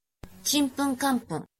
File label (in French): Prononciation de chinpunkanpun